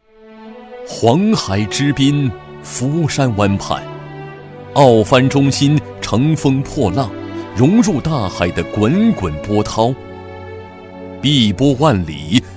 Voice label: 男 项目介绍 规划; 青岛奥帆中心

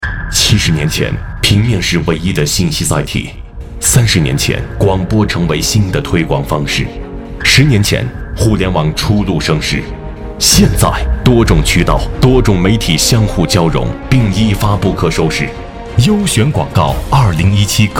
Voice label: 男 广告; 优选广告2017答谢会

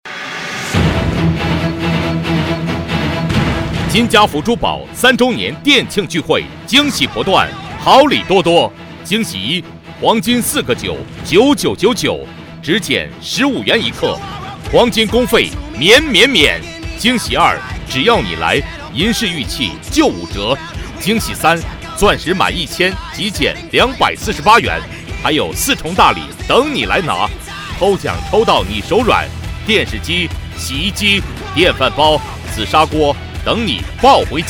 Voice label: 男 促销

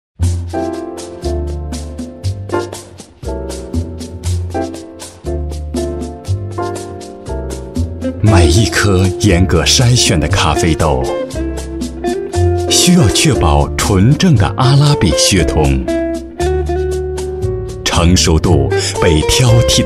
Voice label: 男 广告 啤酒 品质典雅

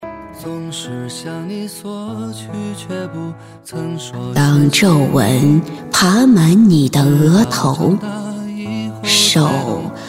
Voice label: 女 模仿 模仿老奶奶

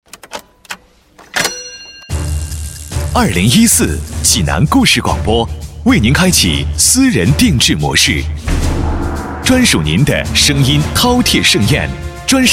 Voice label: 男 片花 故事广播新年宣传

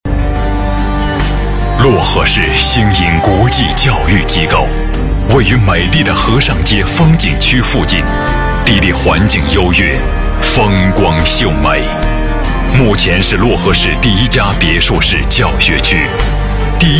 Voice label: 男 专题 教育机构; 漯河市星盈国际教育机构

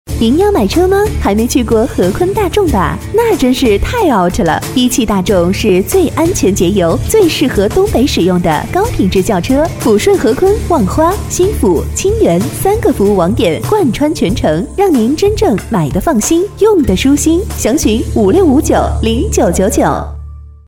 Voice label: 女8-广告, 女; 和昆大众

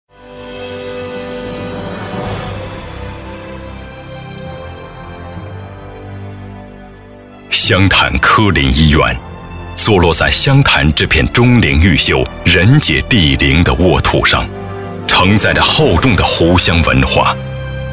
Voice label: 男 专题 医院专题

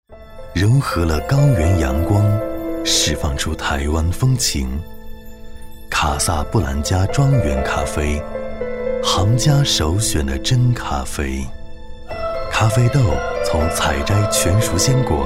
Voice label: 男 广告 咖啡

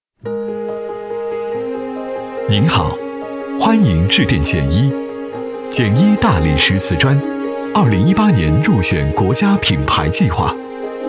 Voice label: 男 彩铃 大理石; 简一

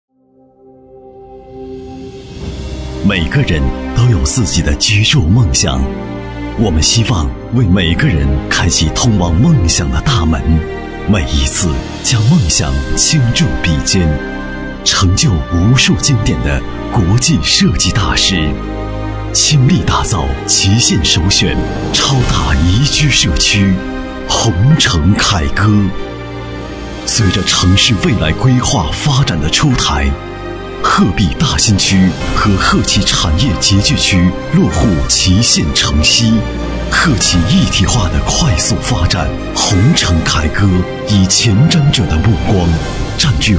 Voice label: 男 广告; 鸿城凯歌

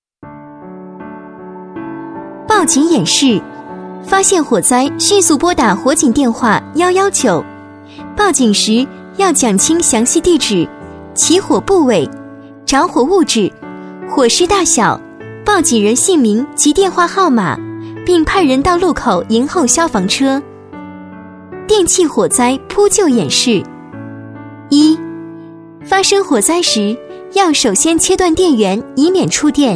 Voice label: 女-专题-发现火灾时

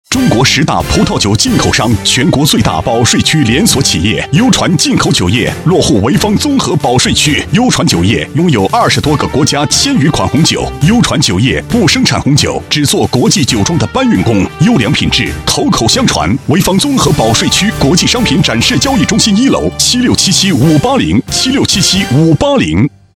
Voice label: 男 广告 红酒; 优传红酒

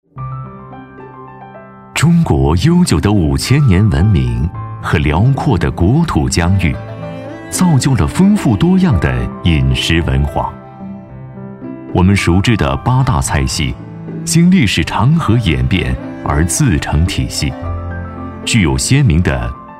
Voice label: 男 纪录片 饮食文化

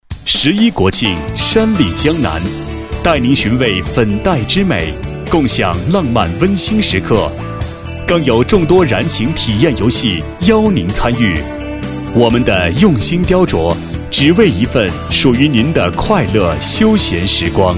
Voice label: 男 专题【亲切】; 山里江南

